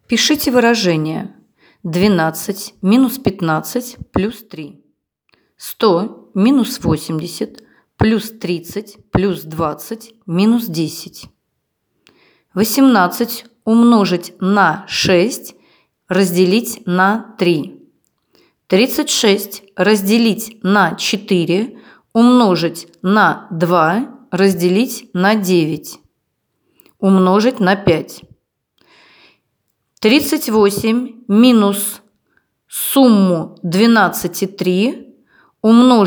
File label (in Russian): Диктант